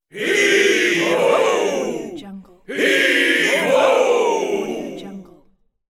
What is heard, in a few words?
pirate group heave ho; applehillstudios